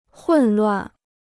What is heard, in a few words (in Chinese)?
"混乱", Chinese